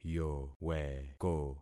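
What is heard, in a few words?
"yoweko", concatenated syllables; Affixing experiment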